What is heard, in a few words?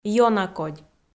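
"ёнакодь", Komi, female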